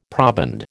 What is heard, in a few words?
"proband", American